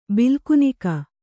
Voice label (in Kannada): "ಬಿಲ್ಕುನಿಕ", Kannada